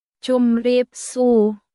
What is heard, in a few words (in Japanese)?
クメール語（カンボジア語）の日常会話基本フレーズ